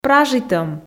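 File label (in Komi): "пражитӧм" Komi, female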